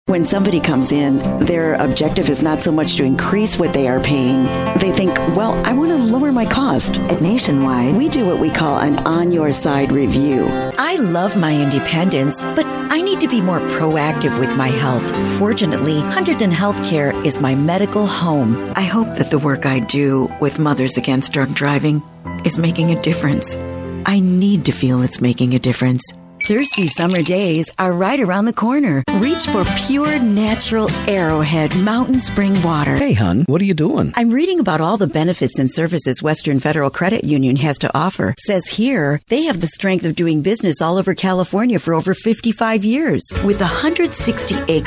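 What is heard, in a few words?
english canadian female narration; voice demos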